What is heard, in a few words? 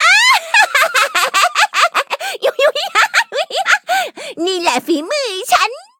level up, th; cookie run